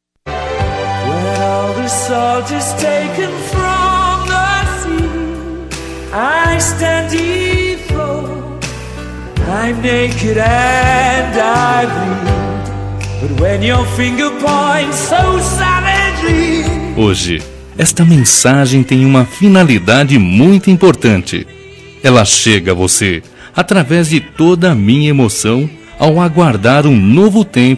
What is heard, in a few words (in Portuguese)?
CONVITE PARA PADRINHO DE CASAMENTO MASC